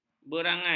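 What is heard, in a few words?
"berangai", Malay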